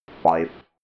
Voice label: robot voice five

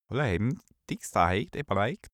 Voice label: male gibberish scandinavian

